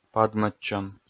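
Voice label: "падмӧдчӧм", Komi, male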